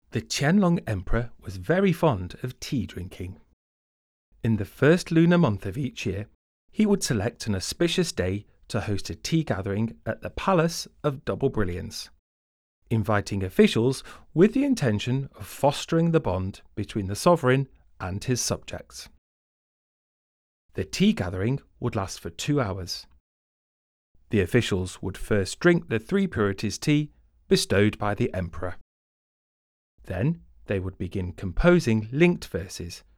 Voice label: Eng VO; audio guide